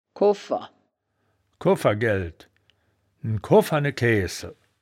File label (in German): "koffer", wittlich